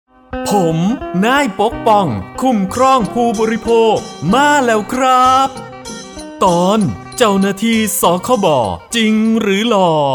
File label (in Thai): สปอตวิทยุ ภาคตะวันออกเฉียงเหนือ; สื่อประชาสัมพันธ์; สคบ.; สำนักงานคณะกรรมการคุ้มครองผู้บริโภค